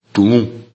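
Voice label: "tumu", Bambara; animaux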